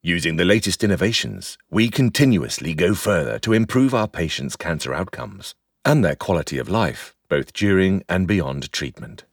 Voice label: Medical VO; Millstream